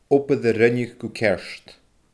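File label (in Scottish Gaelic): "obair a rinneadh gu ceart", Scottish Gaelic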